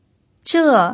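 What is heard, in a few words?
"zhe" Mandarin, fourth tone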